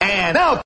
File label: and now announcer